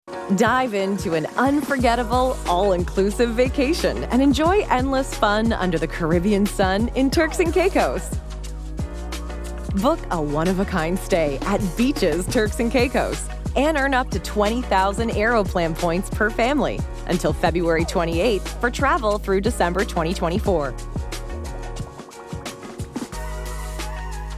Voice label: female English neutral North American accent commercial; english canadian; acv